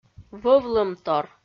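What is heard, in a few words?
"вӧвлӧмтор", Komi, female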